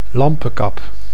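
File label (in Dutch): "lampekap", Dutch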